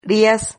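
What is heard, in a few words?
"rias", Spanish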